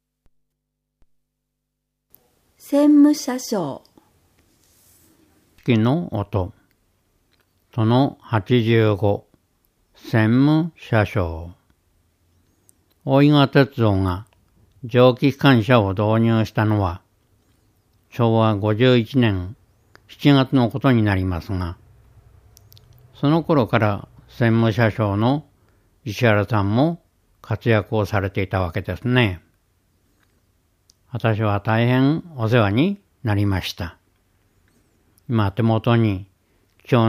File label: shasyou; train; sikioto